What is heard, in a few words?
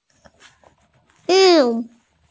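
"shi2wu4", Chinese, female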